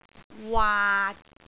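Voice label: "waat6", Cantonese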